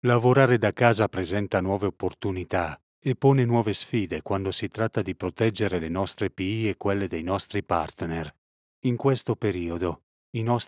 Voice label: ITA CORPORATE VIDEO; SMART WORKING